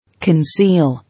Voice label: "Conceal", English